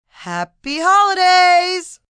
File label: Holidays F, female; Occasion, event; VoiceBox